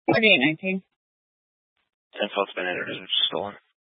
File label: Police Department Dispatch; Grand Junction